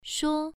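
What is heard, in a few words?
"shuo", Mandarin Chinese syllable, first tone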